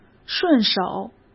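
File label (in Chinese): "顺手", Mandarin Chinese; hsk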